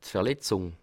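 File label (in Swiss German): "verletzung", Bas Rhin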